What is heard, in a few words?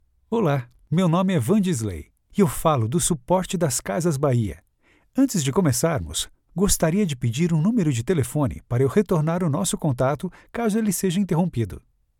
portuguese brazilian ivr; voice actors